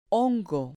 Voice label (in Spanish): "hongo", Spanish